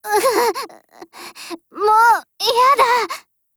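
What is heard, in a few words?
BREAK, Japanese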